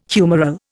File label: "humoral", Indian